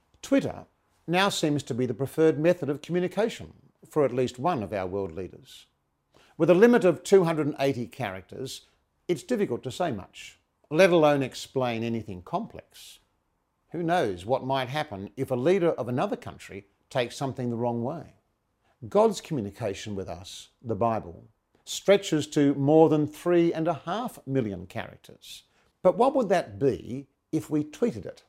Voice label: Xmas Message Radio